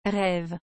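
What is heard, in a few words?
"reve", French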